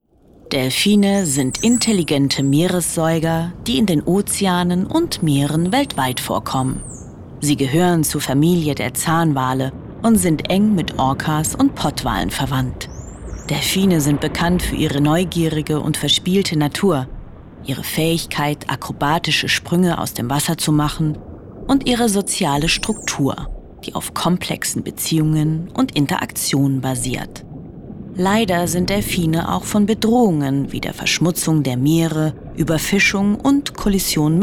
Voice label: Doku, Voice Over Tier-Doku, German

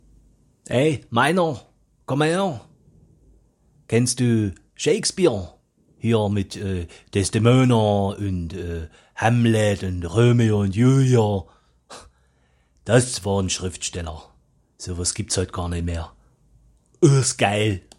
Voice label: Saechsisch; LOFT VOICES

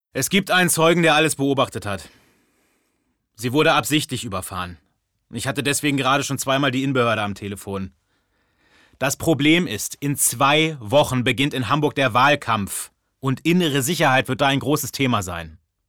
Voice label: Polizeiansprache

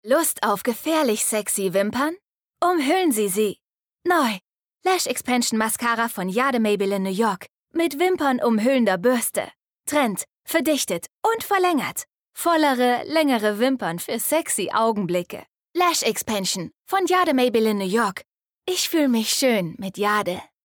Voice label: mascara werbung

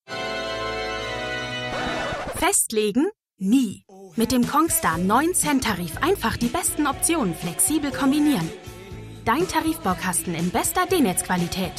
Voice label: Imagefilm frisch und fetzig

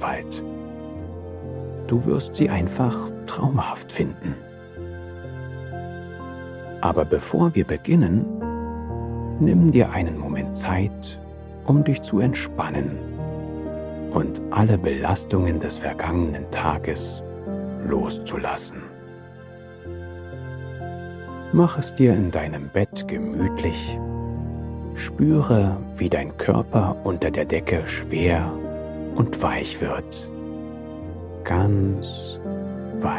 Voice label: Schlafgeschichte Calm

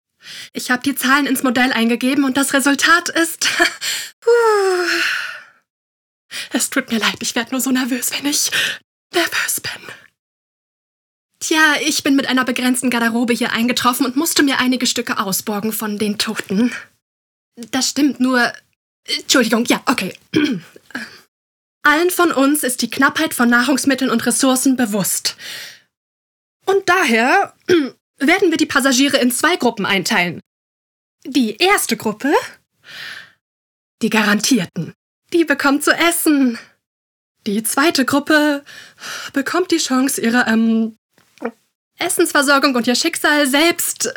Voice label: Komoedie chaotisch quirlig; Avenue 5

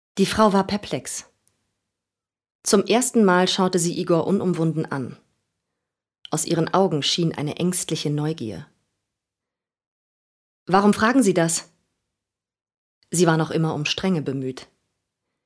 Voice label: Hoerbuch

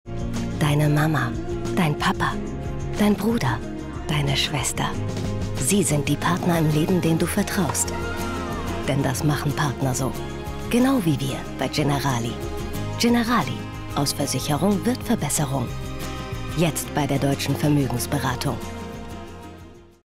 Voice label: TV Spot; Generali

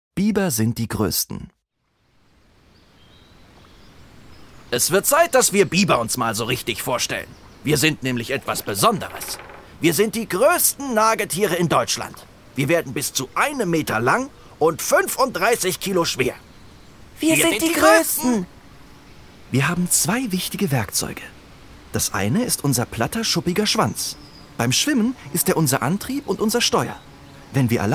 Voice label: Kinder Audioguide

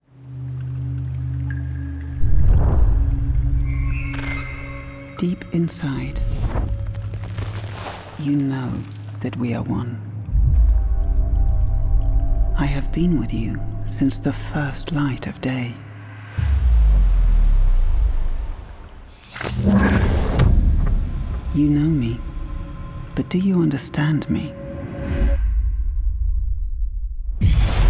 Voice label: Imagefilm Teaser; LOFT VOICES

